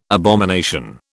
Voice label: "abomination", British

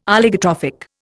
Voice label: "Oligotrophic", Indian

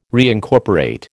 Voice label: "reincorporate", American

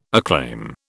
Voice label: "acclaim", British

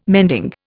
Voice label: "mending", Indian

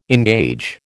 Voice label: "engage", American

